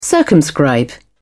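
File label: "circumscribe", British English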